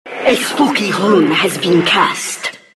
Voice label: rune spooky; Diretide 2012

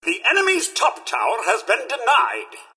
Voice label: tower denied enemy top, announcer; Fallout 4 announcer; Mister Handy

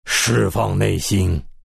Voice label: death, zh; Dota 2

